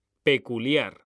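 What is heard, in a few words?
"peculiar", Spanish; mekanta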